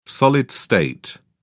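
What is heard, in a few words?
"solid-state", English, British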